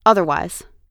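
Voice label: "otherwise", American English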